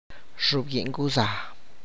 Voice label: "salsa de huevo a dish with eggs and sals", tlacochahuaya